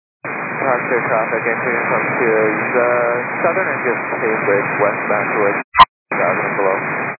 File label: 122 925MHz, scanner radio